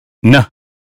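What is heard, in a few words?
"name", American English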